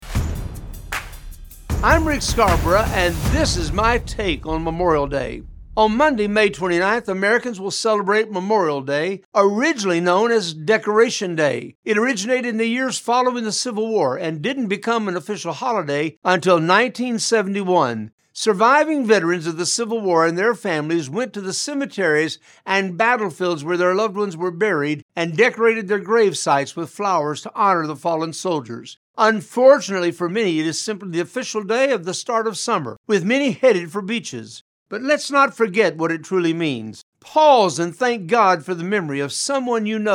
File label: Radio Spot; Memorial Day